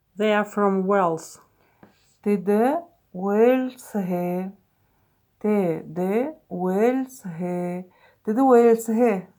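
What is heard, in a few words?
Buryat They are from Wales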